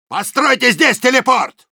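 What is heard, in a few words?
need teleporter, Russian; Soldier; Постройте здесь телепорт.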